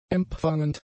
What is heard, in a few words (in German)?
"empfangend", german; adjectives